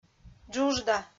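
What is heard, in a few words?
"джуджда", Komi, female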